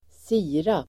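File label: "sirap", Swedish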